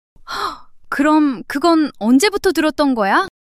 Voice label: surprise, monologue